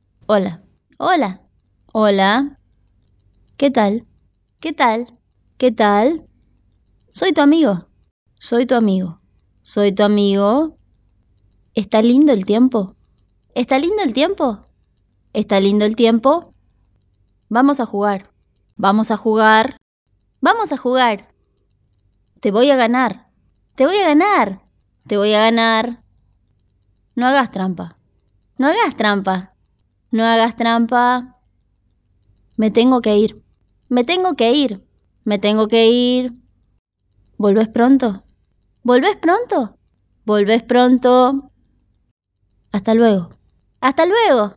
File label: es arg woman; 37